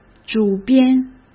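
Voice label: "主编", Mandarin Chinese; hsk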